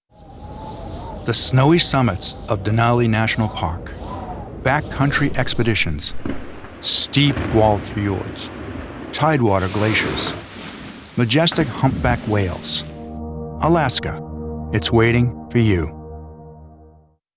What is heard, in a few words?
STORYTELLER; TRAVEL ALASKA